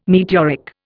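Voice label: "meteoric", English, Indian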